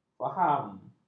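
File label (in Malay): "faham", Malay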